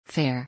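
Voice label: "fair", English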